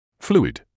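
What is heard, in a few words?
"fluid", British English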